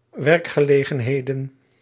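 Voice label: "werkgelegenheden", Dutch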